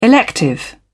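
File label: "elective", British English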